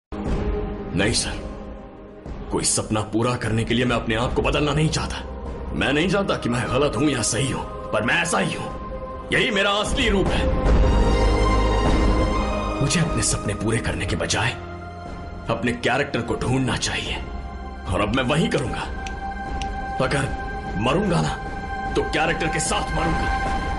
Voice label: Dialogue Hindi